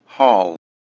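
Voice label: "hall", English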